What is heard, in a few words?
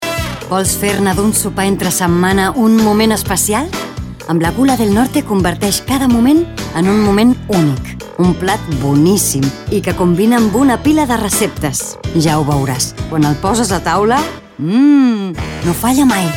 female catalan comercial; ideasonora